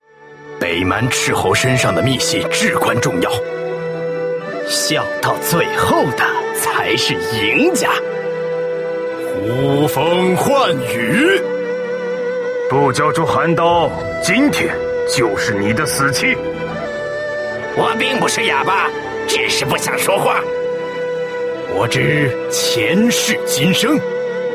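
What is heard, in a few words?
male middle-aged and elderly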